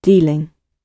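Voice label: "dealing", British English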